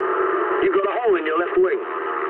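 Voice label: English left wing mood low; speech; War Thunder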